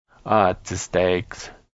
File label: rabbits, Blackfoot